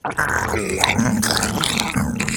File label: Zombie Idle